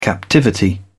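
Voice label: "captivity", English, British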